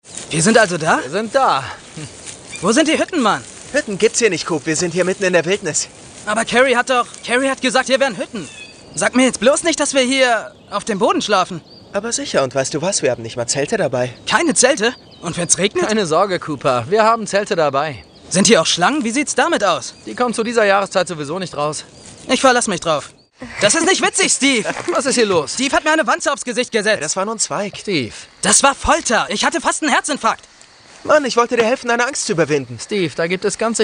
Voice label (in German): Schauspiel Aufgeregt